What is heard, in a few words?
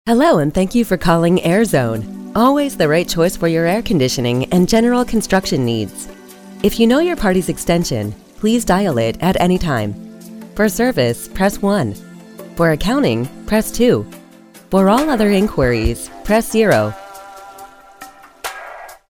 IVR